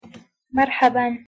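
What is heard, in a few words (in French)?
bonjour, Arabic pronunciation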